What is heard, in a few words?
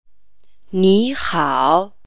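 salutation, female, Chinese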